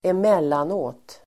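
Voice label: "emellanåt", Swedish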